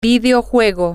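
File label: video Games, Spanish, female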